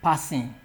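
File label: "passing", Nigerian Pidgin, male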